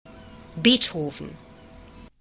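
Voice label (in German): "Beethoven", German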